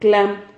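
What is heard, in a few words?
"clam", Spanish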